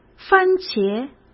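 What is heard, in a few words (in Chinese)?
"番茄", Mandarin; chinese-flashcards; hsk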